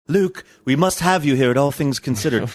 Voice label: british